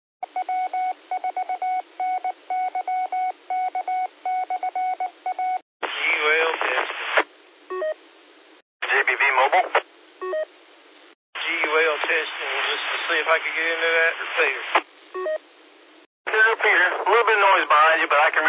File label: qso rec simplex logic